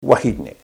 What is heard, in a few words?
"wahine", te reo